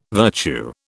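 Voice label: "virtue", British English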